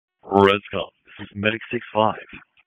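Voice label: EMS Dispatch; wake p25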